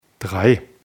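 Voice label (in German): "drei", German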